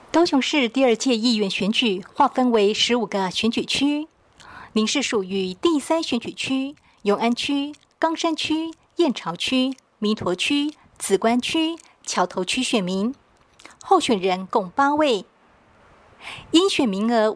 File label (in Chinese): 國語; 第三選區